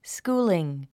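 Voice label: "schooling", English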